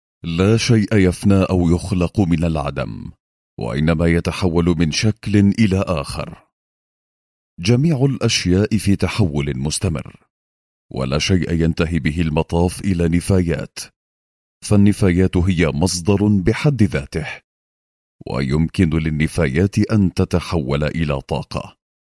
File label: arabic commercial; arabic voice actors